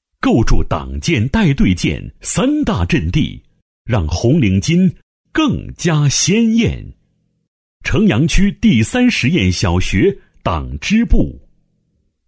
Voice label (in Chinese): 男-实验小学党支部-稳重; 学校院校宣传